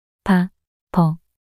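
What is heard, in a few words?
"바버" Korean, female; 낱소리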